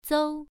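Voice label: "zou1", Chinese pronunciation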